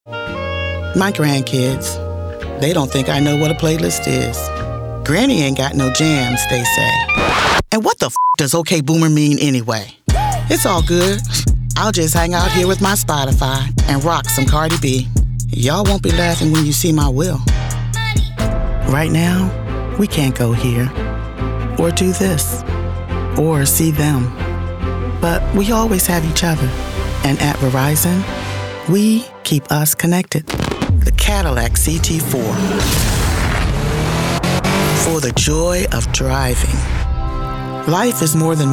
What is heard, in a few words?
Commercial